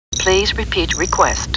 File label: Star Trek Computer Voice; StarTrekLCAR